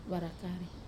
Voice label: "vara kare" free, Wamesa

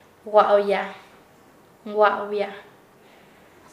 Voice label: "hablar", Nasa Yuwe; paez

